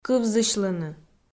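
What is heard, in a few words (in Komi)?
"кывзысьлыны", Komi, female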